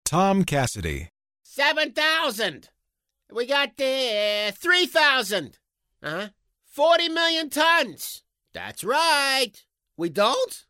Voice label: NASAL

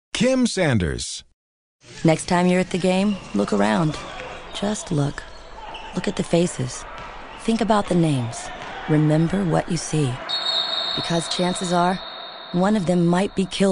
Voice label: CONCERNED

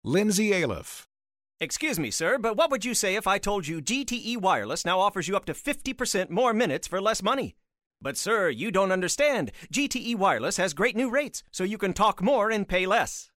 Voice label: 50s announcer

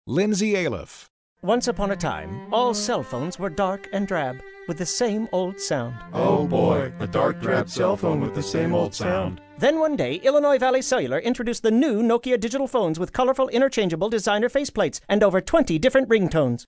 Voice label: STORY TELLER

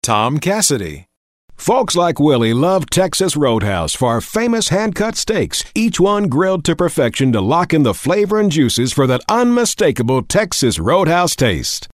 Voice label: southern

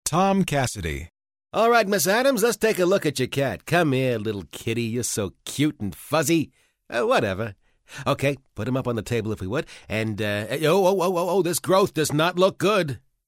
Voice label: NEUROTIC

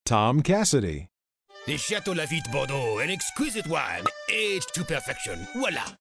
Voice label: french accent

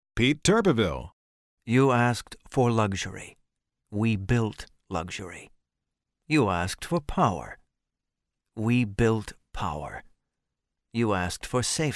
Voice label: luxurious

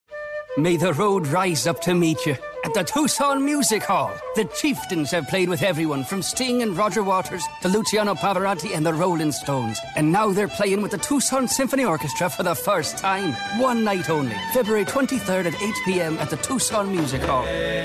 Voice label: Irish accent

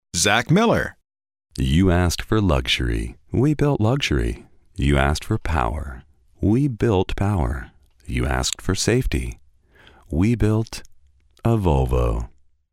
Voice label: luxurious

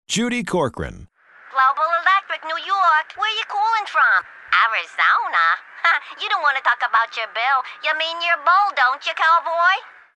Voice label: new yorker; NYC

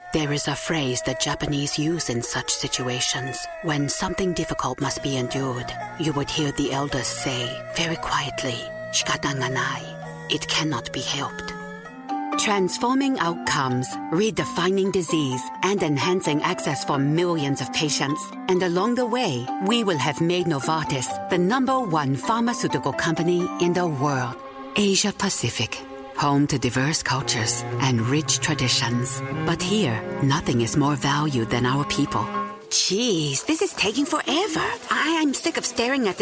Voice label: Asian

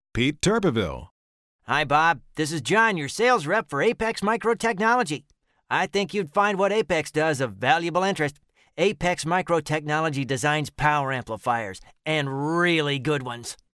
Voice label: NASAL

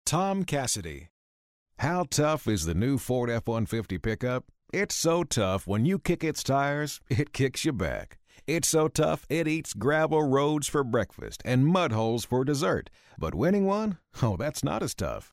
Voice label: tough

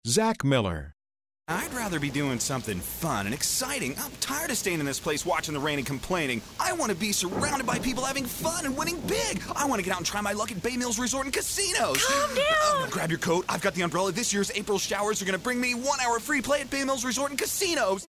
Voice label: ENERGIZED, Energized Excited

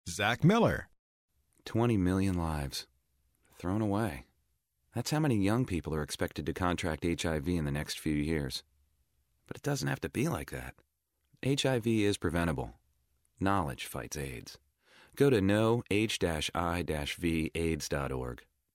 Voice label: CONCERNED

